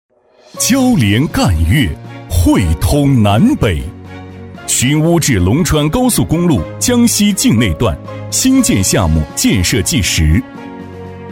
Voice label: 男 专题片 大气激扬; 乌川线工作纪实; 激情有力; 大气浑厚; 男121-专题片《乌川线工作纪实》-大气激扬

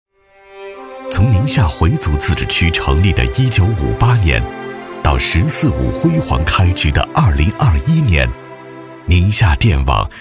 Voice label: 男 工程汇报 讲述; 宁夏电网; 男42-磁性质感; 质感磁性

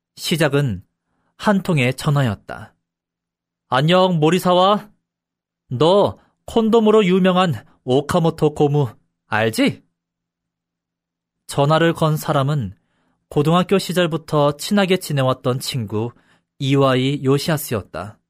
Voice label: 韩语男声 宣传风格

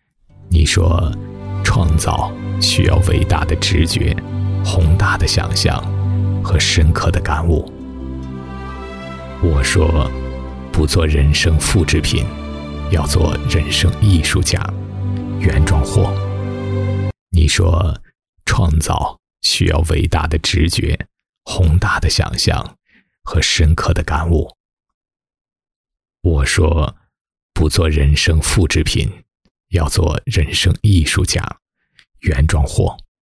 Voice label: 男 走心松弛独白; 男D1-浑厚大气 大气浑厚

